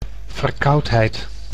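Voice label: "verkoudheid", Dutch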